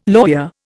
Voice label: "lawyer", Indian